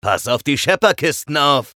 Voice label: chatter neutral; eisen